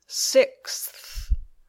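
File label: "sixth", English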